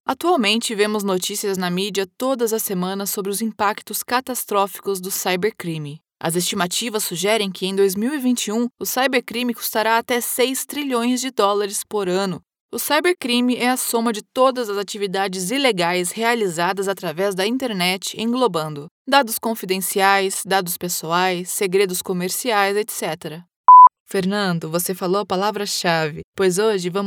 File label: training voice interaction female brazilian portugu